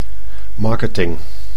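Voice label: "marketing", Dutch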